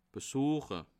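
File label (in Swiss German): "bsueche", Bas Rhin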